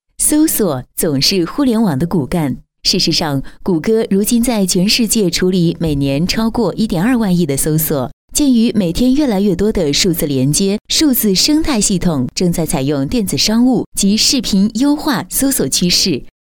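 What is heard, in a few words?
Mandarin Chinese, female, commercial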